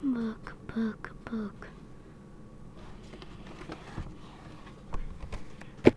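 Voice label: "book", spoken word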